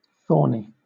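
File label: "thorny", British; pronunciations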